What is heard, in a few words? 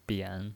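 "bian", Mandarin Chinese, third tone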